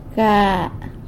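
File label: "ga" nine, San Lucas Quiaviní Zapotec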